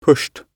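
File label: "pushed", British English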